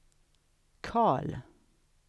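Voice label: "Karl", Swedish; [kʰɑ:ɭ]; svensk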